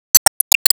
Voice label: Emo Reply